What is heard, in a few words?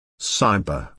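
"cyber" British English; Technology; Công nghệ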